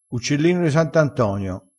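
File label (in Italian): "uccellino", dialettale, crespinese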